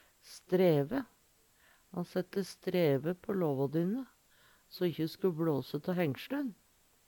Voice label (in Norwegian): streve, Numedalsmål